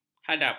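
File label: "hadap", Malay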